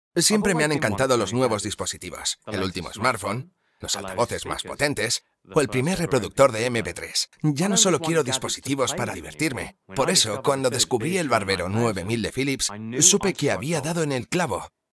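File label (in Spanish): corporativo España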